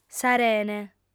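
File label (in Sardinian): "s'arene", female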